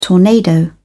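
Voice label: "tornado", British English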